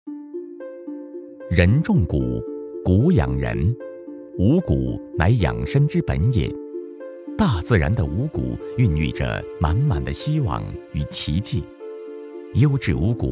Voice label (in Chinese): 纪录片 五谷, 男国; 舌尖